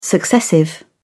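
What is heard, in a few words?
"successive", British English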